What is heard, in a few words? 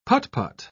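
"put-put", British English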